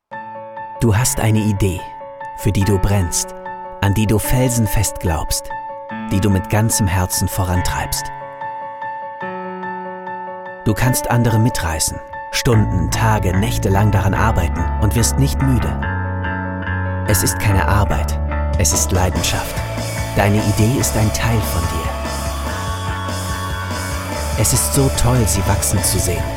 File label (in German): sprachaufnahme imagefilm emotional; diakow cyberforum